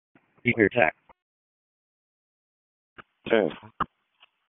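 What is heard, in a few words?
EMS Dispatch, p25; wake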